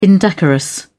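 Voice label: "indecorous", British English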